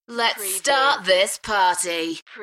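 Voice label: UK female, DJ vocals; Lets start this party; beanstalk audio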